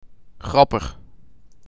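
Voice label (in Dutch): "Grappig", Dutch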